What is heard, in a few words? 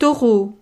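"taureau", French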